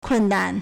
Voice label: "kun4nan", Chinese

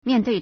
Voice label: "mian4dui4", Chinese

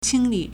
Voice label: "qing1 li3", Chinese

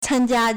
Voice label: "can1jia1", Chinese

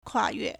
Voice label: "kua4ue4", Chinese

